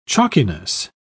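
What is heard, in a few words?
"chalkiness", British English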